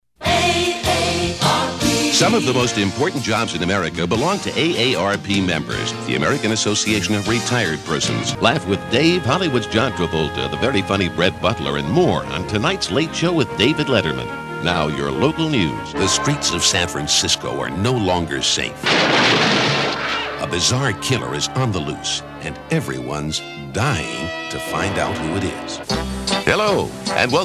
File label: Commercial Promo